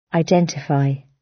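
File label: "identify" British; Flora and fauna, agriculture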